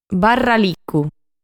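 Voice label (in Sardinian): "Barralicu", Sardinian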